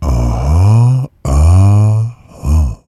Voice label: AHHUH, HipHop Vox